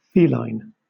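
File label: "feline", British English; pronunciations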